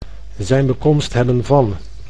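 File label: "zijn bekomst hebben van", Dutch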